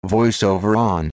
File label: VoiceOver On, en; EFI Audio